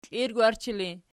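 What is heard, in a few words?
"klirgwarchi" ergative, Archi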